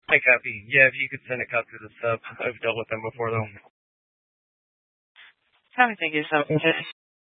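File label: Sheriff Dispatch, Scanner audio recording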